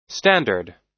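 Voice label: "standard", US English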